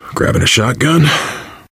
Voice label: take shotgun, player survivor voice biker